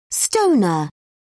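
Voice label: "stoner", English, British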